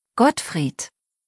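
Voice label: "Gottfried", German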